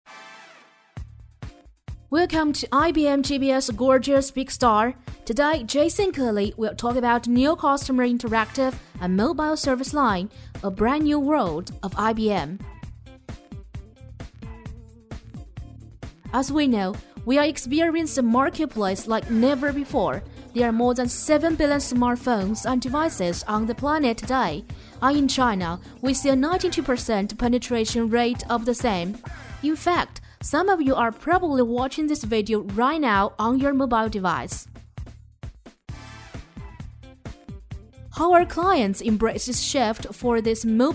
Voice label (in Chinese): 英女 英语; IBM